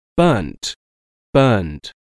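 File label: "burnt burned", English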